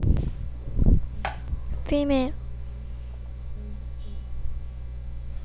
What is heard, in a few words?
female